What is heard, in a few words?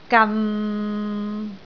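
"gan6", Cantonese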